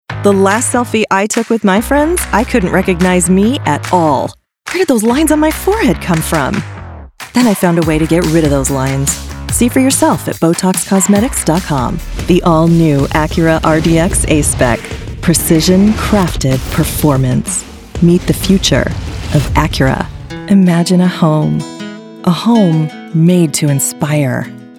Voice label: Commercial, Voice Actor; Seattle area-based; SOVAS Award winning